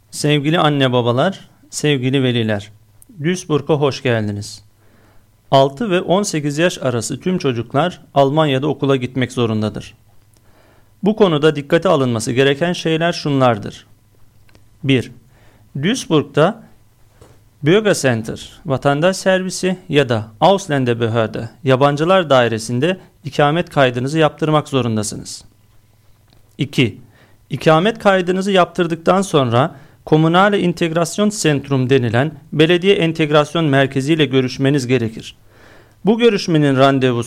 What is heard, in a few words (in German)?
Sprachausgabe Tuerkisch